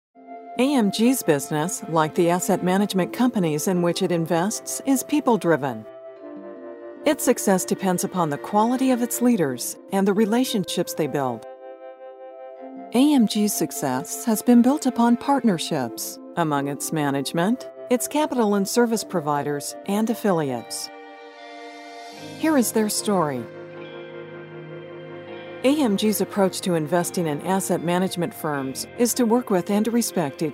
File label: Upbeat Narration